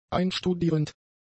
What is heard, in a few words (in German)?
"einstudierend", german; adjectives